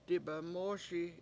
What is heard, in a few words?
"dibemOOSi" I spit, Washo